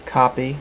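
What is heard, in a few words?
"copy", male, voice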